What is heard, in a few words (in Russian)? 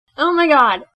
omg devushki bystryi